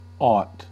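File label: "aught", American English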